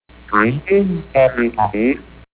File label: voder